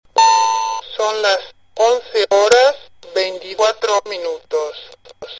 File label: spanish talking clock